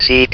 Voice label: "SEEK", votrax; VIDEO GAMES